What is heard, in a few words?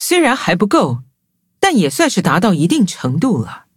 levelup zh-hant; Cookie Run